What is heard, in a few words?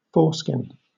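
"foreskin" British English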